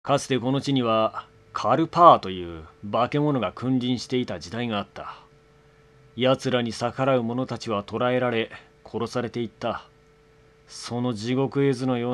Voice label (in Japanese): セリフサンプル, 声質・低い, 雰囲気・淡々と語る